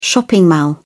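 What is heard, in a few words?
"shopping mall", British English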